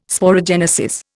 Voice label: "sporogenesis", Indian

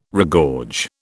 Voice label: "regorge", British

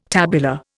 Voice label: "tabular", Indian

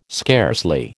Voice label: "scarcely", American

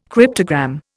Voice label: "cryptogram", Indian

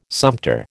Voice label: "sumpter", American

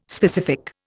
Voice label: "specific", Indian

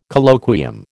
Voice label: "Colloquium", American

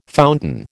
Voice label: "fountain", American

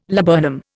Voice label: "laburnum", Indian

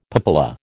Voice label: "papilla", American

